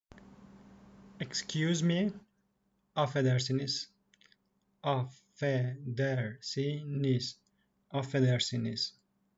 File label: excuse me, turkish; the talking turkish phrasebook